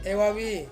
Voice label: "wavi", Ansus; hungry